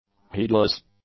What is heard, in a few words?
"heedless", English; adjectives